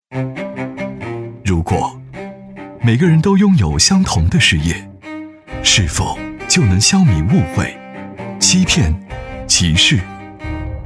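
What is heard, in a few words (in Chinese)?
男 专题（磁性韵味）; 科技; 如果科技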